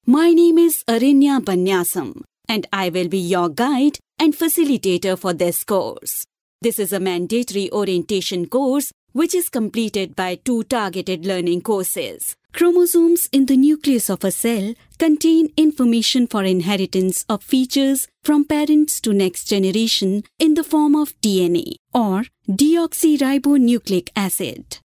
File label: female indian voice over narrative